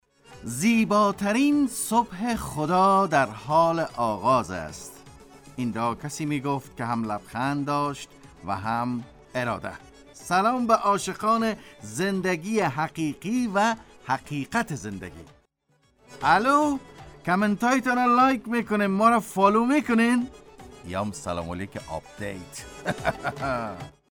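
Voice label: Dari Afghanistan male voice over, narrative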